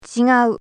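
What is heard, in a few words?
"chigau", Japanese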